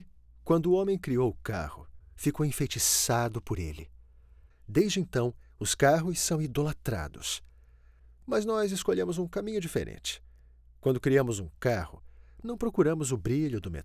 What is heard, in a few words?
portuguese brazilian automotive; brazilian portuguese voice actors